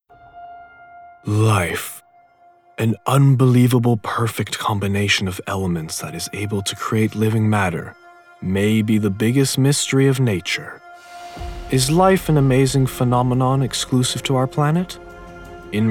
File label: Documentary VO